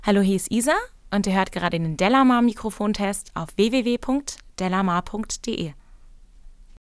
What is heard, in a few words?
speech f, mxl 9090wrm